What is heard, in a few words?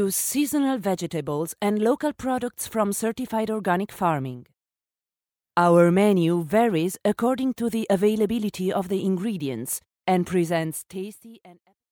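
Italian female voice over, English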